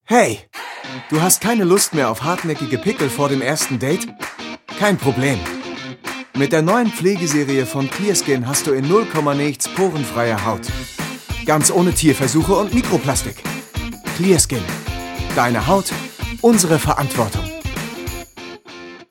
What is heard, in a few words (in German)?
werbung musik; clearskin